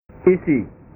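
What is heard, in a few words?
"isi", Indonesian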